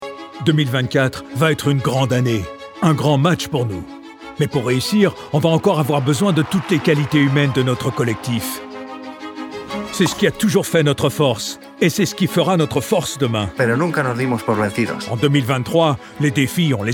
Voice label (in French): FR; voix-off; BNP